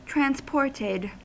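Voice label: "transported", US English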